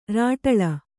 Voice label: "ಱಾಟಳ", Kannada